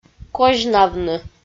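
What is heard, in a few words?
"козьнавны", Komi, female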